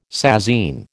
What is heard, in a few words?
"sasine", American